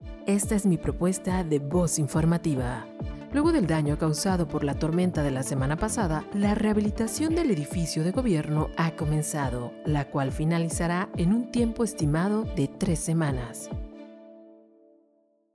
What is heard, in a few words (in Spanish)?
Informativa, locutora